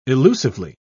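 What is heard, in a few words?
"illusively", British English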